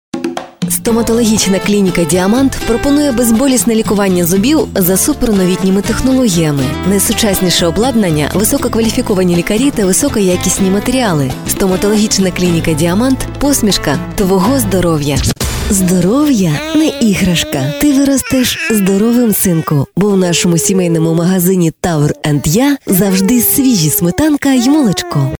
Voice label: ukr; dictory fem